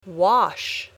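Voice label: "wash", English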